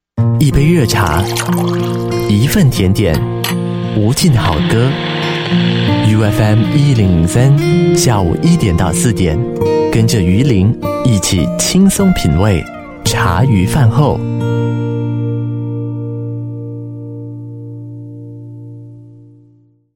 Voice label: Mandarin China Jingle